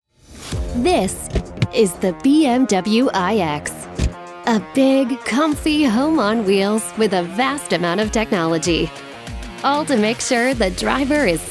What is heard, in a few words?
Narration; voice over